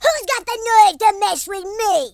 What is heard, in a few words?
what the, American Wrestler